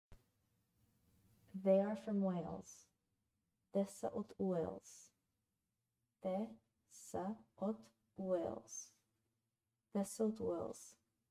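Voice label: "They are from Wales", Bulgarian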